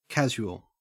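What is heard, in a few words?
"casual", Australian English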